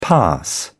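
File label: "pass", British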